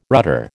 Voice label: "rudder", American